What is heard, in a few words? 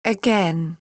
"again", GBR f